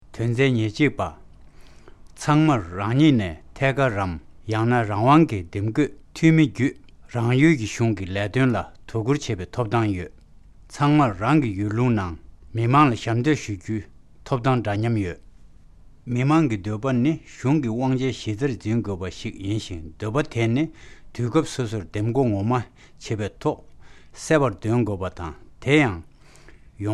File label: Tibetan